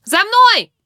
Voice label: attack, human woman fight; Stalker Anomaly

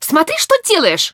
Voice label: no weapon, woman, talk; Stalker Anomaly; characters voice human use

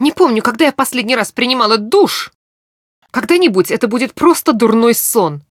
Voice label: idle, woman

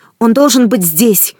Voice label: search, woman; Stalker Anomaly

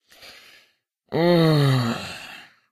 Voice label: idle, woman

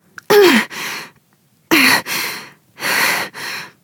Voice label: help, human woman wounded heavy; Stalker Anomaly

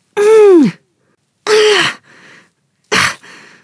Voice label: help, human woman wounded heavy; Stalker Anomaly

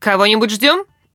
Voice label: back off, characters voice human woman threat; Stalker Anomaly

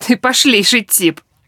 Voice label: reaction joke, human woman; Stalker Anomaly

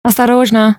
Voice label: drop weapon, human woman threat; Stalker Anomaly

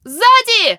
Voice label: enemy, characters voice human woman fight

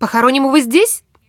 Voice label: threat distant, woman; Stalker Anomaly

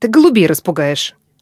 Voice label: reaction music, woman; Stalker Anomaly; characters voice human reactions

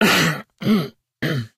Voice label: idle, woman; Stalker Anomaly; characters voice human

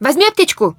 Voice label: medkit, human woman help wounded; Stalker Anomaly

